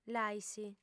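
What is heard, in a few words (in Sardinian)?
"l'ais", Sardinian, female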